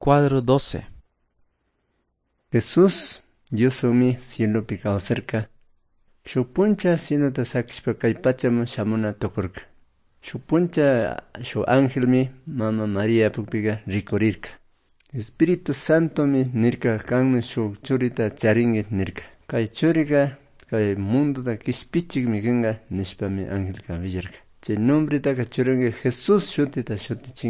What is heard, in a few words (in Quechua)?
good news quichua cayambe